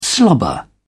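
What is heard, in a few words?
"slobber", British English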